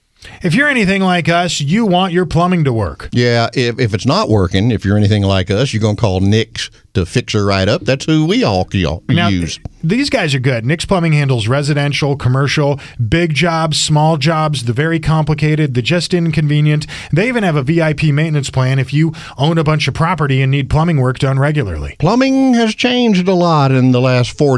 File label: RADIO AD; nicks plumbing radio ads